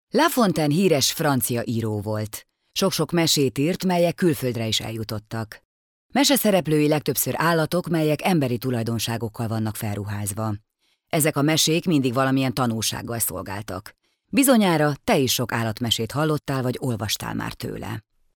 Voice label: female hungarian voice over narrative

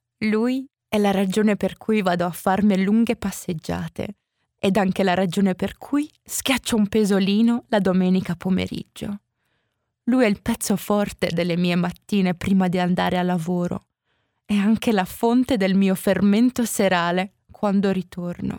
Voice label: female italian voice over sales

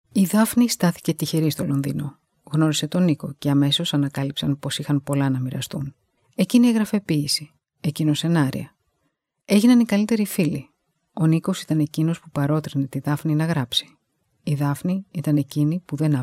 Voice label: female greek voice over narrative

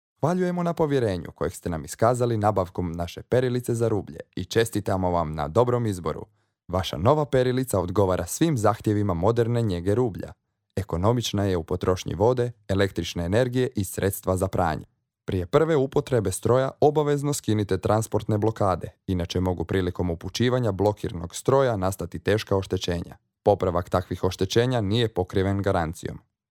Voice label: male croatian voice over talent narrative

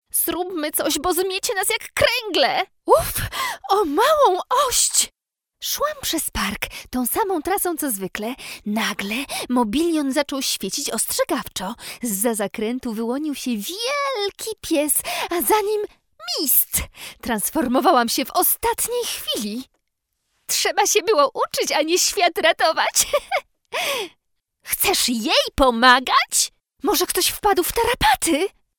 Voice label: female polish voice over character

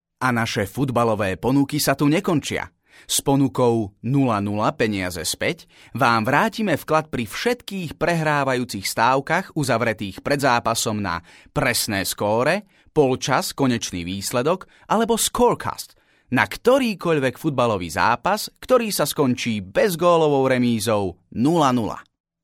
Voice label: male slovakian voice over talent narrative

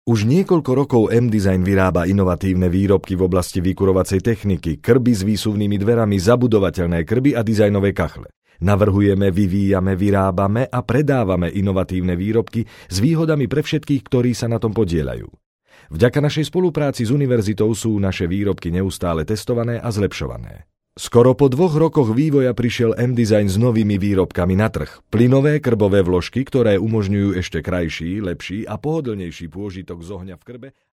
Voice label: male slovakian voice over talent narrative

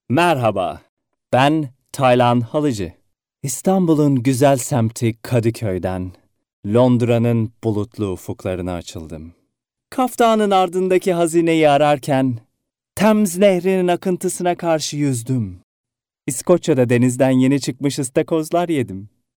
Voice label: male turkish voice over talent upbeat

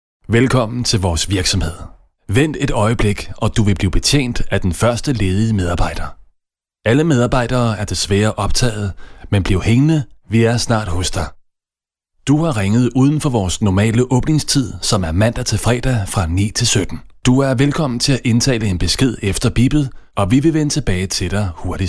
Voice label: male danish voice over narrative

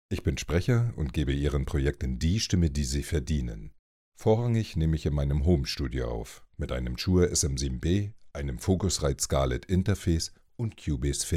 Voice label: male german voice over talent narrative

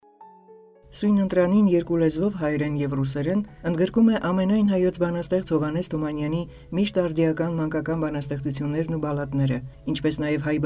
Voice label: female armenian voice over narrative

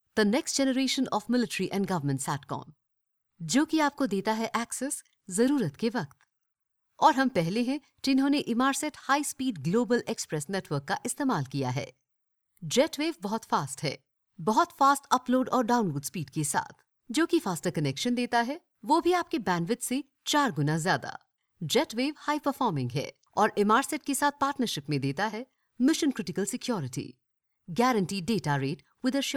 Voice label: female hinglish voice over upbeat

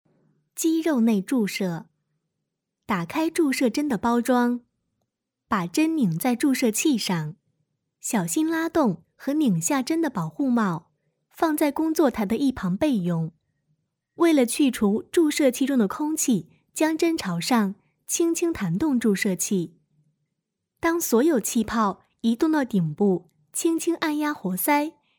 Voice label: female chinese voice over narrative, mandarin

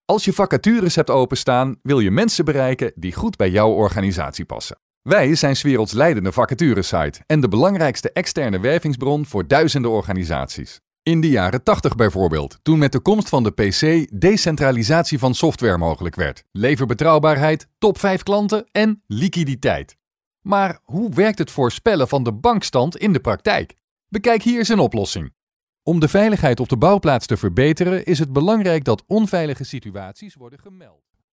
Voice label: male dutch voice over talent upbeat

